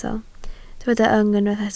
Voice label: "yng", Welsh

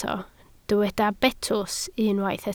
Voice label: "betws", Welsh

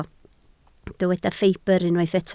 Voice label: "ffibr", Welsh

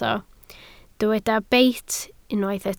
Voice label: "beit", Welsh

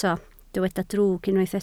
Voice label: "drwg", Welsh

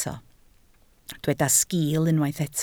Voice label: "sgil", Welsh

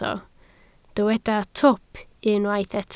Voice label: "twp", Welsh

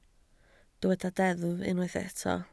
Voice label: "deddf", Welsh

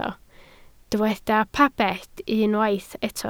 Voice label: "pabell", Welsh

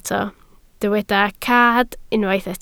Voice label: "cad", Welsh

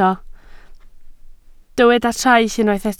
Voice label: "traill", Welsh

